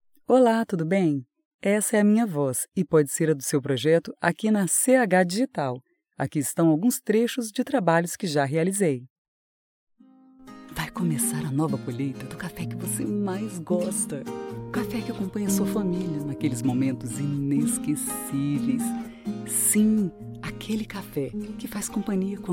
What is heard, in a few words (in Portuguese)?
Voz Feminina